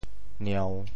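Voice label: "nieu3", Teochew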